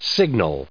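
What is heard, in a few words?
"signal", English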